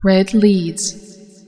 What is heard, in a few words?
red leads, female; feedback